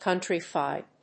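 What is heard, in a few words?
"countryfied", English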